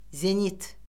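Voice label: "zenit", Azerbaijani; Orfoqrafiya Lüğəti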